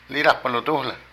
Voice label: Culpable, Zapoteco de Petapa; Vocabulary

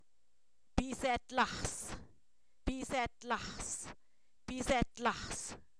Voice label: mosquito, Zapoteco; animales; INALI

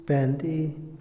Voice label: "atacar", Otomí, hombre; INALI

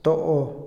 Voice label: "quién", Otomí, hombre; INALI

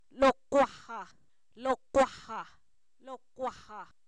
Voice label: frente, Zapoteco, san miguel albarradas; cuerpo; INALI

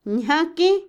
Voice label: lengua, idioma, Otomí, mujer; Habla; INALI

